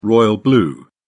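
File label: "royal blue", British English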